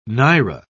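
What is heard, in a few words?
"naira", British English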